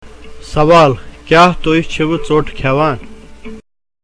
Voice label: Spoken Kashmiri, Reply: No, I am not reading a book.